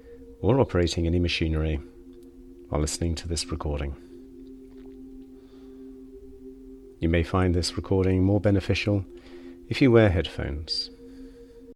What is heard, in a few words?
Mindful Meditation The Breath